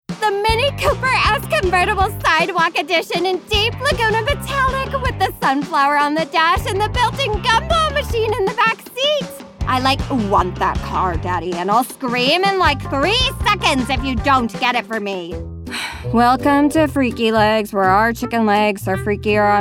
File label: ANIMATION